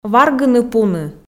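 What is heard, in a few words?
"варгыны-пуны", Komi, female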